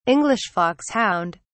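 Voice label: "english foxhound", British English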